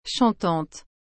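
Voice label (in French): "chantante", French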